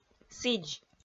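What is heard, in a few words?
"сідз", Komi, female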